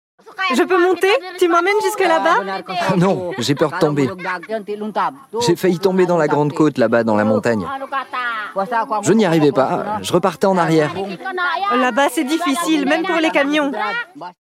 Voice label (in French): Documentaire, voix off documentaire